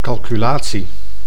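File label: "calculatie", Dutch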